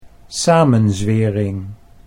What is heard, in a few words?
"samenzwering", Dutch